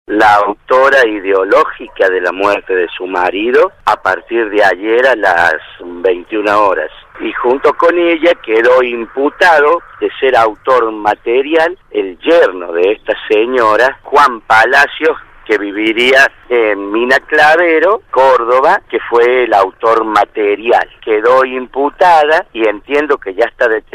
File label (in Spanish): fiscal narra los hechos; El fiscal narra los hechos